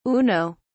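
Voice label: "uno", British English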